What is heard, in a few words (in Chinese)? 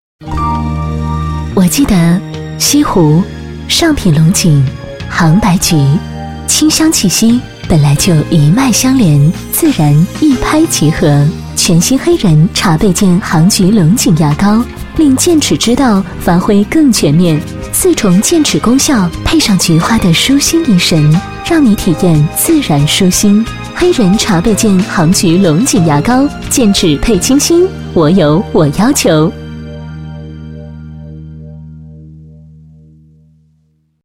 女4号 广告 洗化品 年轻时尚, 国语女声配音; 优雅配音; 黑人牙膏